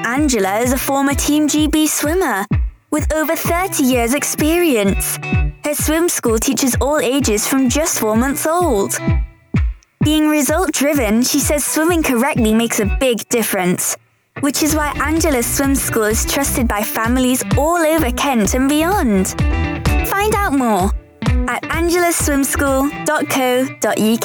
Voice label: child radio commerical